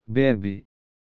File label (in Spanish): Bebe en portugues